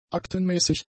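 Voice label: "aktenmäßig", german; adjectives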